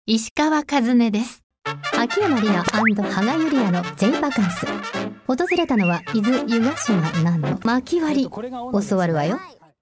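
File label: female tv program English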